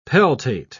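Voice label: "peltate", English, British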